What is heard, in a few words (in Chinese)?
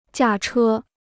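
"驾车" Chinese; 驾车 (jià chē); to drive a vehicle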